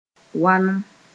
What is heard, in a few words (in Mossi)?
"walem", Mooré